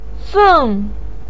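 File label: "feng", Mandarin pinyin, tone 4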